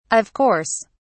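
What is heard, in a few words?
"of course", English, British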